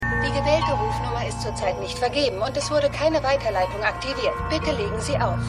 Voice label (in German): Telefonstimme; The Flight Attendant